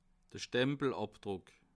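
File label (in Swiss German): "stempelabdruck", Bas Rhin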